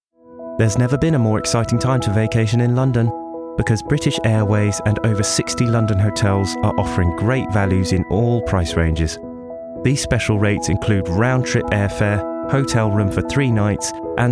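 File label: English UK male commercial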